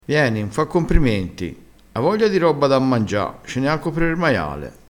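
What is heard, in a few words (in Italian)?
"essecene anco per er maiale", vocabolario dialettale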